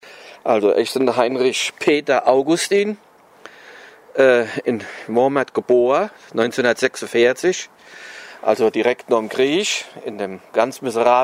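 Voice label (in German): stellt sich vor, Hunsrück; Womrath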